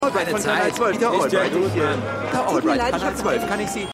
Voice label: Passsant M1 M2 F1

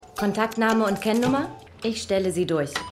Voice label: Telefonistin, Stimmproben; The Flight Attendant

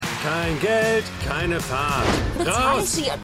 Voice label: Taxifahrer, Stimmproben

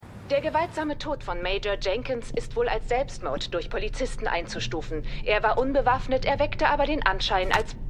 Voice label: Nachrichtensprecherin; Madam Secretary

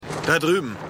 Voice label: Polizist Im Park, Stimmproben

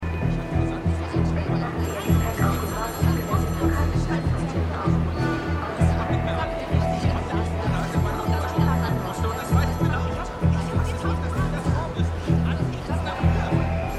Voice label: Streitender Mann Frau; Moskitokueste

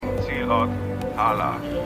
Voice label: Computerstimme; Captain Marvel

